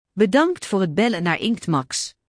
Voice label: welcome, phone